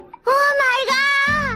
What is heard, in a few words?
oh my god anime